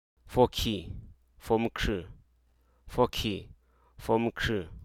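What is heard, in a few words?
"band", Hruso Aka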